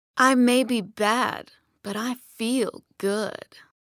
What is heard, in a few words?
foreigner